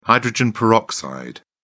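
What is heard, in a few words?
"hydrogen peroxide", British English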